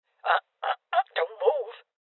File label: Voices Freeze Female Old; Rebel Cops